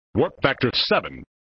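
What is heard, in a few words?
"Warp Factor Seven", male voice; Worf; flipper; sttng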